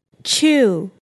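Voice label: "chew", English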